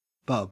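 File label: "bub", Australian English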